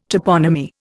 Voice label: "toponymy", Indian

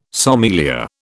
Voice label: "sommelier", British

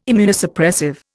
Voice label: "Immunosuppressive", Indian

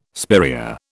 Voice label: "spiraea", British

